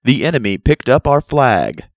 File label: announcer, red enemy pickup; Construct Beta Files